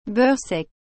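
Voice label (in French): "beurre sec", French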